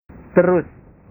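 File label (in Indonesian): "terus", Indonesian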